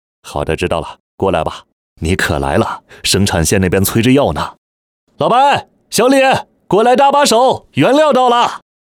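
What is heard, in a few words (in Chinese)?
角色 男 中年; 某科技公司重大爆炸事故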